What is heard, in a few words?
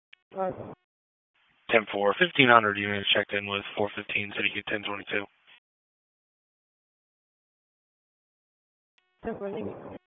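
Raleigh Police Southeast District Dispatch; p25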